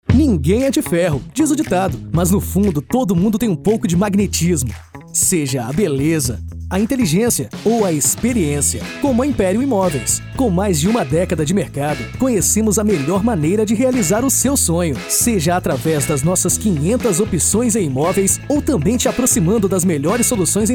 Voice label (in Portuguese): Spot; AV PULSAR PROPAGANDA